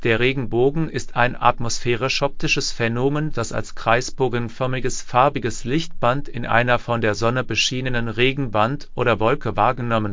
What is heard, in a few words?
speaker, de_DE; piper voices; pavoque